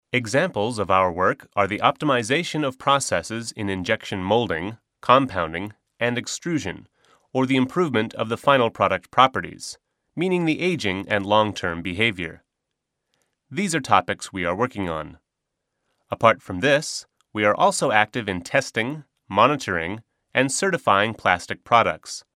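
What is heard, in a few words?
Male English IVR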